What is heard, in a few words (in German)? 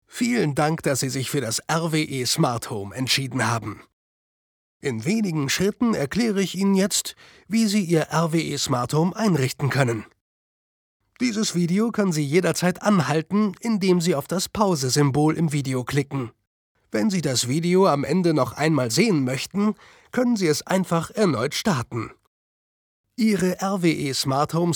freundlich